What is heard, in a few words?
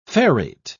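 "ferrate", English, British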